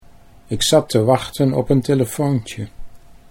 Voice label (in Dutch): "ik zat wachten telefoontje", Dutch